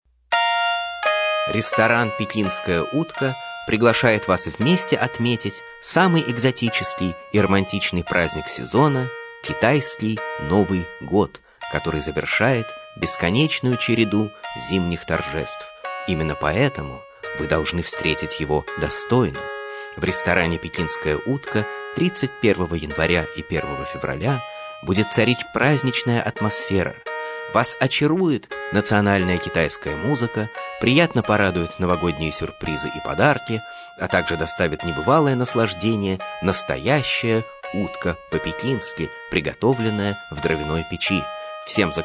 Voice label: reklama